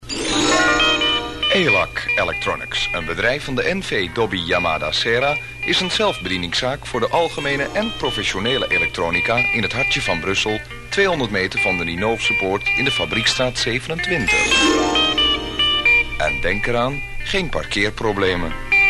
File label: commercial